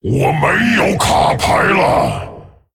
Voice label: Male Dragon No Cards; 牌库空; 我没有卡牌了！; 风暴巨龙迦拉克隆（英雄）; Out of cards; zh

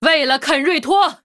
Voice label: Female Human Attack; zh

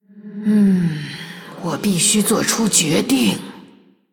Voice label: Female Human Thinking; JainaDeathknight; zh

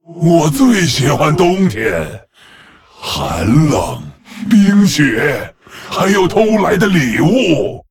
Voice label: Male Lich HAPPY HOLIDAYS; zh

